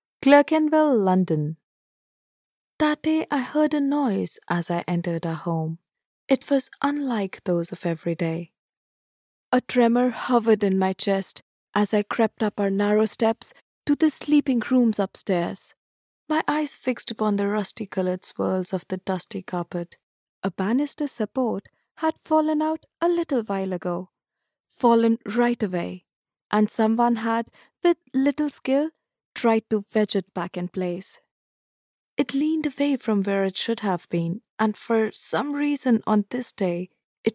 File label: english audiobooks